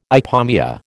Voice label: "ipomoea", American

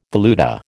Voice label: "valuta", American

